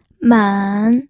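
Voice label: "men", third tone, pinyin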